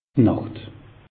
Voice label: "nacht", alsace; meteo

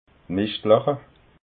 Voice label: "mischtlacha", alsace

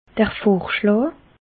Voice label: "vorschlaa", alsace

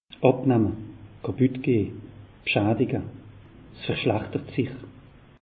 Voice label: "abnamme", alsace; meteo

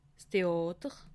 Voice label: "theater", alsace

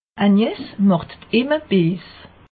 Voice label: "imme", alsace; meteo

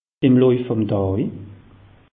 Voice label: "loif", alsace; meteo

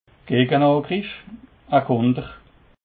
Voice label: "gegenangriff", alsace

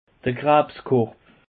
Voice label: "krabskorb", alsace; peche

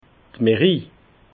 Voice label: "mairie", alsace

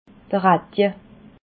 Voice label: "radig", alsace

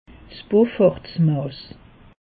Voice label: "beaufort mass", alsace; meteo

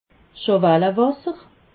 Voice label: "schwalawasser", alsace

